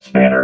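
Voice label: "spanner", robot voice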